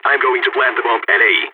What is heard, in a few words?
going to plant bomb, balkan